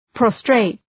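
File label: "prostrate", English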